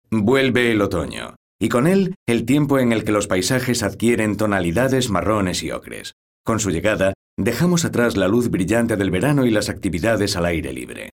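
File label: Spanish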